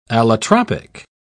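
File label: "allotropic", British English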